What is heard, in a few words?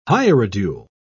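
"hierodule", English, British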